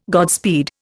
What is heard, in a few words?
"Godspeed", Indian